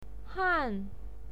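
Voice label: "han", Mandarin Chinese, fourth tone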